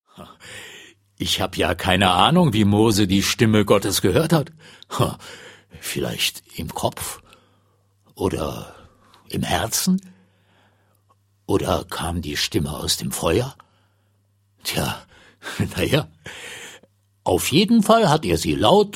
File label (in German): Erzaehler